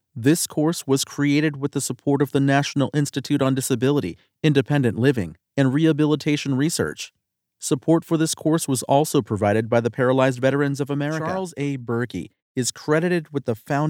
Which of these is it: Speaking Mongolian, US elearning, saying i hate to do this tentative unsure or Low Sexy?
US elearning